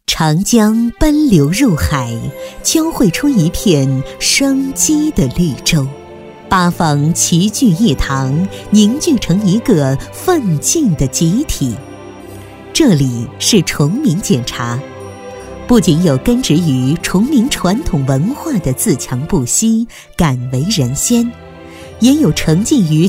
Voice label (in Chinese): 女-专题-瀛洲简茶专题片